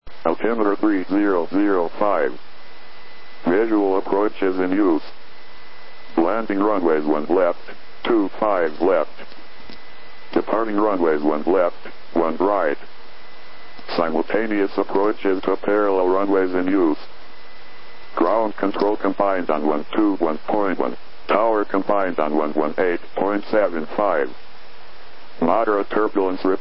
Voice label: ATIS, USA; las